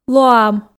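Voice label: "лоам", Komi, female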